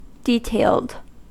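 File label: "detailed", US English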